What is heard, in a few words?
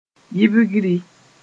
"yibgri", mos-en; forgetting